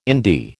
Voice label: "indie", American

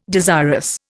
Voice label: "desirous", Indian

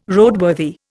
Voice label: "Roadworthy", Indian

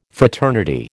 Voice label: "fraternity", American

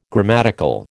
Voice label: "grammatical", American

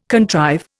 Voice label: "contrive", Indian

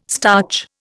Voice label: "starch", Indian

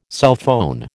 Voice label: "cellphone", American

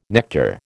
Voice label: "nectar", American

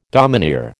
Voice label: "domineer", American

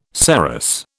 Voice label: "saros", British

